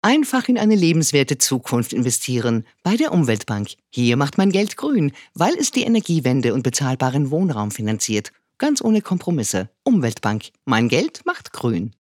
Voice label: Werbung; Umweltbank

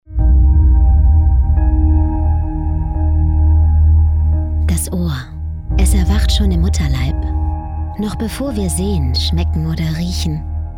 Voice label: DE Dokumentation Teaser sanft nah

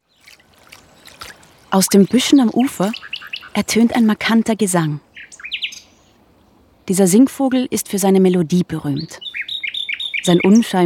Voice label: Doku off

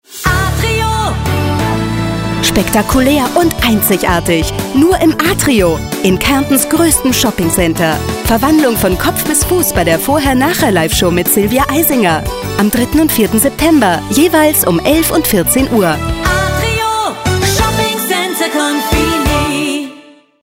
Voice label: Werbung

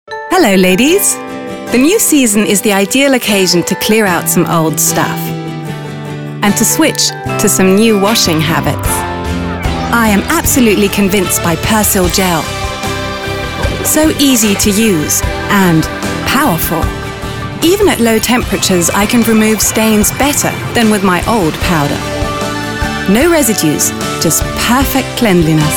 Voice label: Werbung E

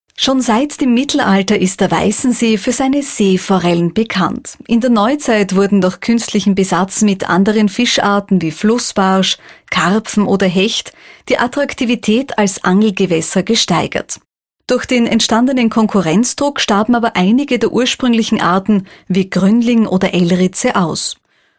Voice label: doku, D

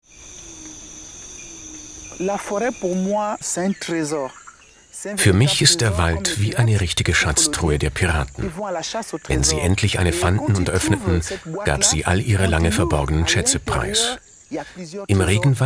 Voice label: Dokumentation voice over Regenwald

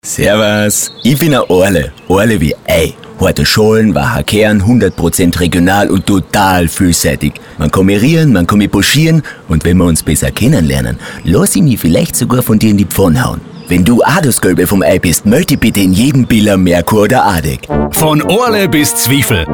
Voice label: Werbung; KTN

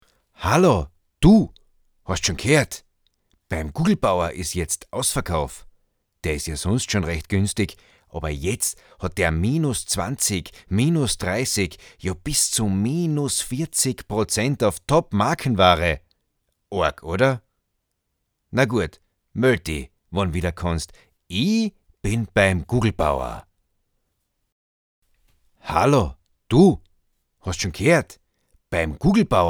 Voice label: Kaerntnerisch

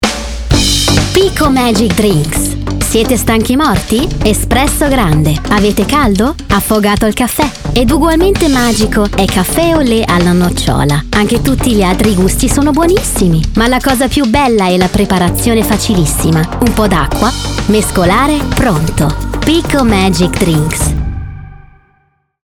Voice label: IT Werbung dynamisch; ITA; Picco

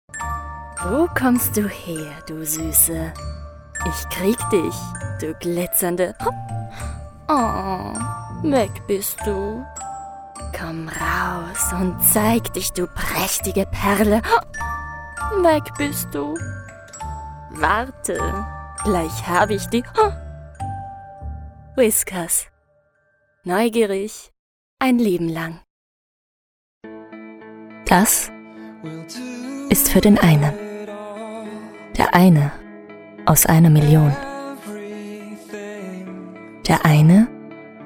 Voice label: Werbung

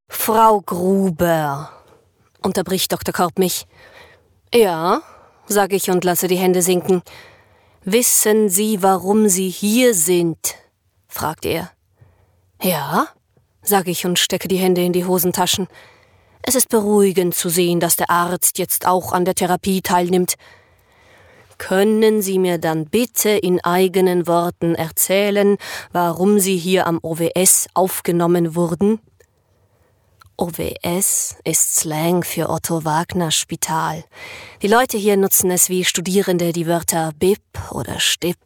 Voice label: Hoerbuch

